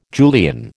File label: "Julian", American